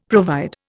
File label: "provide", Indian; Dictionary